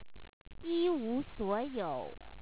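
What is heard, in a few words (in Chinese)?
"yiwusuoyou", Chinese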